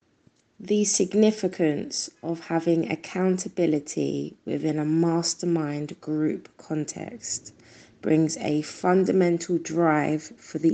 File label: voicenote